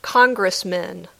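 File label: "congressmen", English word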